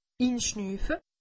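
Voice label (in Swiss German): "inschnuffe", Alsatian; medecine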